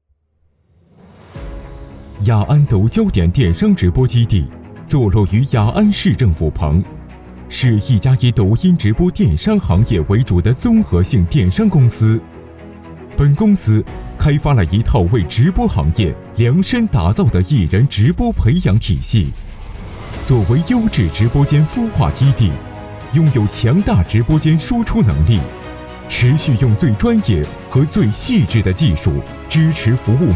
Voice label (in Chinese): 科技企宣传